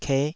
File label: "keh", Taiwanese